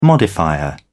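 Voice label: "modifier", British English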